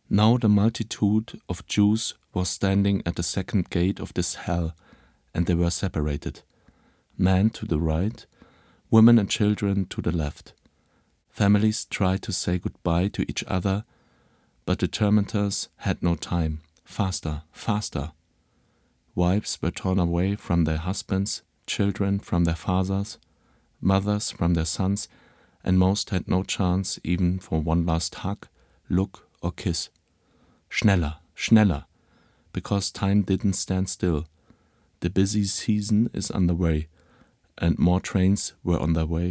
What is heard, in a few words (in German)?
englisch; Vitrine; underground showcase